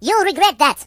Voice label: "You'll regret that", Russian; hedgewars